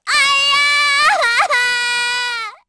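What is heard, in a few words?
Vox Dead, Korean; Hanus; kingsraid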